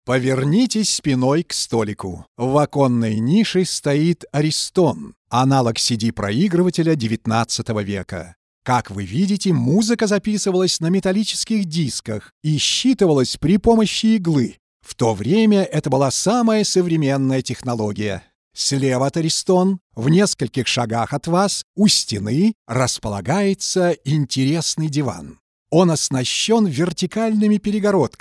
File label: Russian voice castle tour